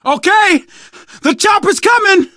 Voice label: radio used chopper, survivor voice, manager